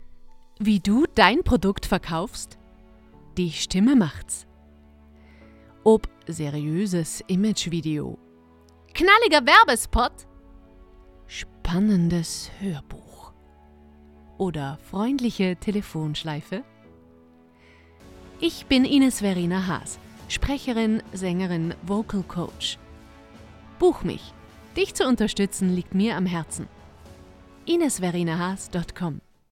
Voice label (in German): Sprecherin mit Musik; Signation